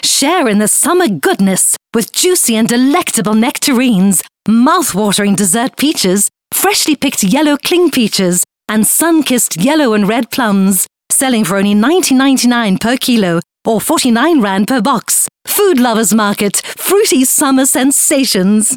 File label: English Retail Sell